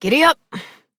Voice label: Horse Start Adult; Duviri